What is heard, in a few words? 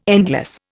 "endless", Indian